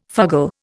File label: "fugle", Indian